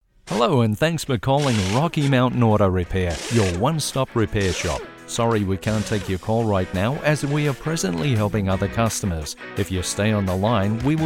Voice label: Phone Message